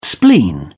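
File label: "spleen", British English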